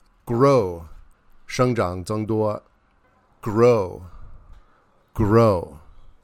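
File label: "grow", English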